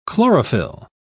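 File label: "chlorophyl", English, British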